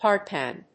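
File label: "hardpan", English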